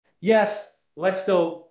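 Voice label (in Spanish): Voice Acting, Spanish, male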